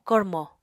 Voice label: "kormo", Apatani

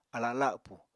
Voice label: "ala lapù", Apatani

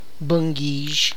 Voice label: "city person", tlacochahuaya

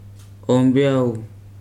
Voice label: "good", Weri

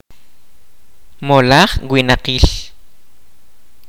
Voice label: "ethnic group", kaqchikel

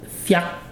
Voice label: twirl, ibibio

